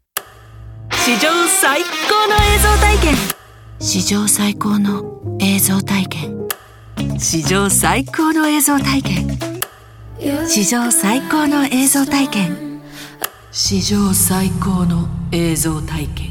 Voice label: バリエーション（明るい、しっとり、クール、ホラー）; movies

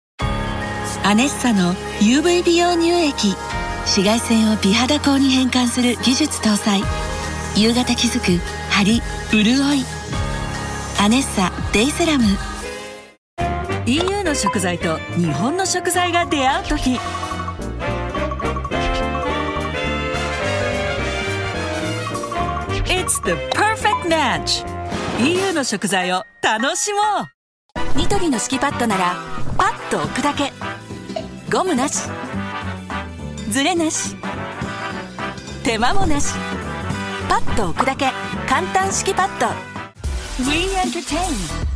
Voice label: CMメドレー

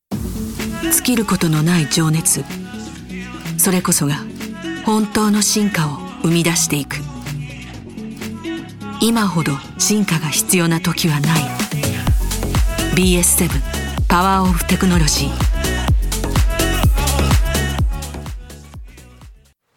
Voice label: 車、低め、クール

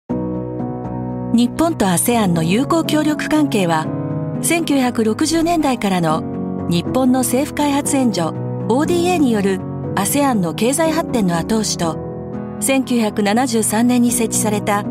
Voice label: 誠実、信頼感、温かい; ASEAN

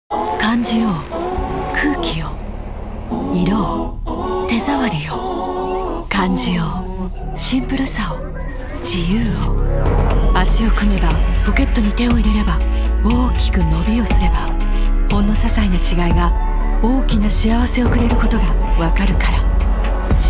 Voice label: モノローグ風、スタイリッシュ、クール, バイリンガルナレーター; cm; GLOBAL WORK

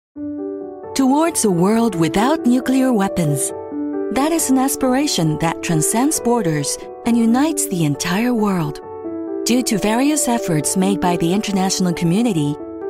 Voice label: 信頼できる、落ち着いた、低め; 外務省「核のない世界へ」

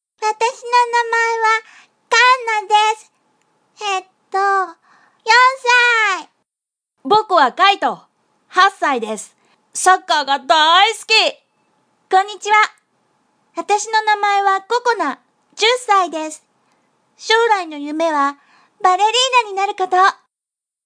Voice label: 日本語キャラメドレー（少女、少年、若い〜中年女性、老婆）

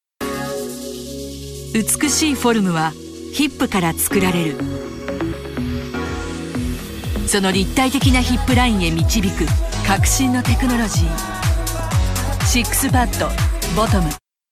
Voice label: お洒落、クール、低め; SIXPADボトム

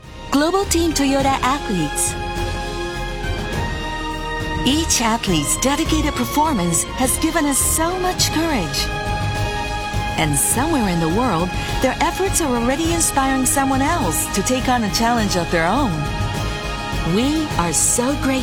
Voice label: 躍動感、勇気、感動的; Toyota Athletes

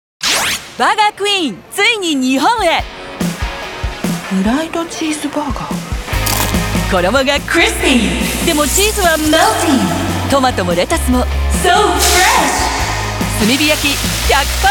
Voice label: DJ系、元気、はじけた; cm; バーガークイーン

